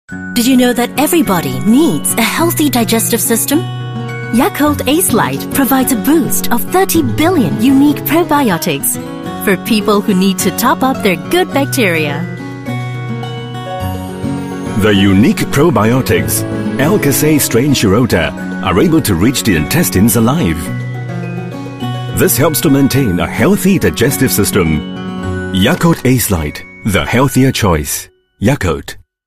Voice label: Asian English commercial; Yakult Did You Know